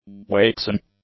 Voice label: "waxen", English; adjectives

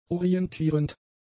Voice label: "orientierend", German; adjectives

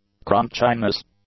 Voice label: "crunchiness", English

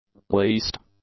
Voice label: "least", english; adjectives

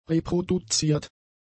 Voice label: "reproduziert", German; adjectives

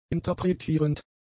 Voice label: "interpretierend", German; adjectives

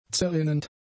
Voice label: "zerrinnend", German; adjectives

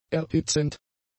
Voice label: "erhitzend", German; adjectives

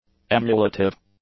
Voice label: "emulative", English; Adjective

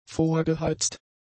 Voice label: "vorgeheizt", German; adjectives

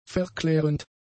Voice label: "verklärend", German; adjectives

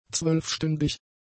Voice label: "zwölfstündig", German; adjectives

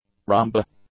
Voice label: "rumba", English

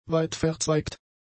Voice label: "weitverzweigt", German; adjectives

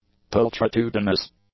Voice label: "pulchritudinous", english; adjectives

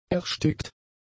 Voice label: "erstickt", German; adjectives

